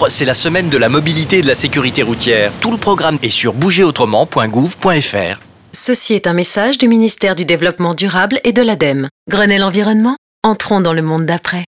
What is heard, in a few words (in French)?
Spot Covoiturage